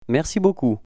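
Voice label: "mɛrsi boku", French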